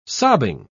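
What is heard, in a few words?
"sobbing", English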